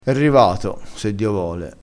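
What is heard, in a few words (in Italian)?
se dio vole, vocabolario dialettale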